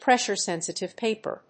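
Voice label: "pressure sensitive paper", English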